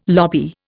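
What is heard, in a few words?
"lobby", Indian English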